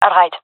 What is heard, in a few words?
agree, gendarmerie fem; vo; agents